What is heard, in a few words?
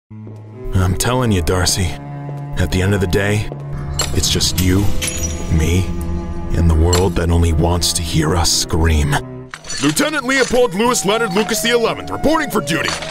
Animation, Male voices